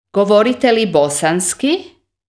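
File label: Croatian, male, Govorite li bosanski?; Sprechen Sie Bosnisch?; Kroatisch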